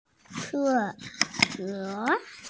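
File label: "ce4suo3", Mandarin Chinese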